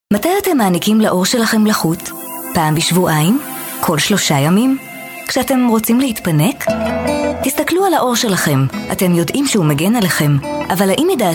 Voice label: HB F commercial